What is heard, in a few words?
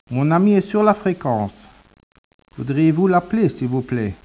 speech, French